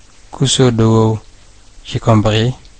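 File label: welcome, Brazilian Portuguese; gcompris-data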